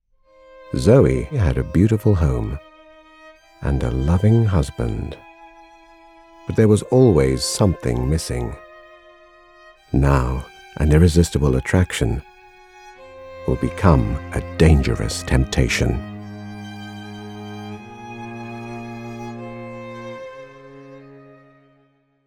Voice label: Trailer